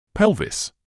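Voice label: "pelvis", British English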